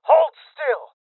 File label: Voices Freeze Male Young